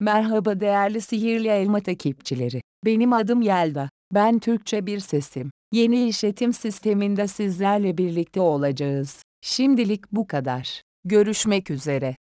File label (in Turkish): turkce ses; mavericks